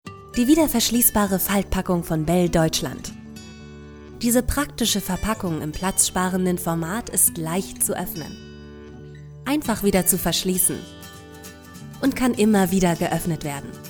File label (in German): Erklaerfilm; Bell Deutschland